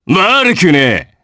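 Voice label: Vox Happy Japanese; Ezekiel; kingsraid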